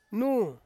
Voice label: "nu", Ansus; place

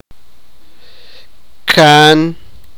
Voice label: sipakapense; father's elder sister

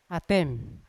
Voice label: "atem" hole, Yawa

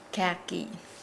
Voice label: Nukuoro; brown

